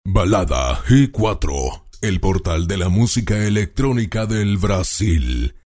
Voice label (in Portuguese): vinheta espanhol; balada g4